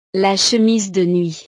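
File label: "le chemise de nuit", French; ropa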